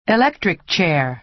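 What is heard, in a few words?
"electric chair", American English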